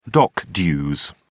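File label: "dock dues", British English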